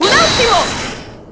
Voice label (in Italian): "un attimo", Italian